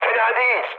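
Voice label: Turkish trick, mood high, speech; War Thunder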